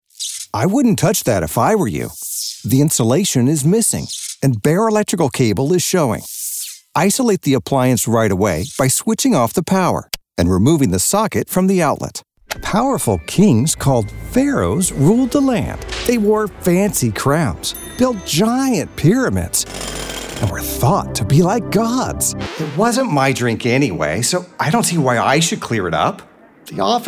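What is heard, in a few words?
voiceover elearning, Male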